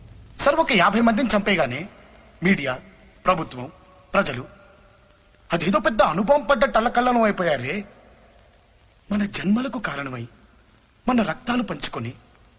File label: Dialogue Ringtone; Rakhi; NTR